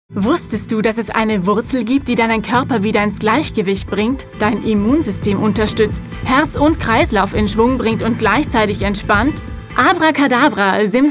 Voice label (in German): WERBUNG; GINSEN REFORMSTARK